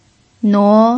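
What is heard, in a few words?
"nuo" Mandarin Chinese, second tone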